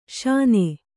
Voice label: "ಶೀನು", Kannada

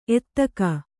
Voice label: "ಎತ್ತಿಗ", Kannada

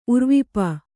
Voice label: "ಉರ್ವಿಪ", kannada

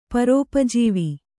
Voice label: "ಪರೋಪಜೀವಿ", Kannada

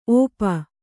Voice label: "ಒಪ್ಪ", Kannada

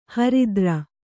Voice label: "ಹರ್ತಾರ", kannada

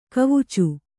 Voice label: "ಕವಿಚು", kannada

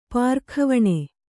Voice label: "ಪಾರಖಾವಣೆ", kannada

